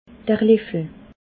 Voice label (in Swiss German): "leffel", alsace